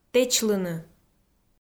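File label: "тэчлыны", Komi, female; audio dict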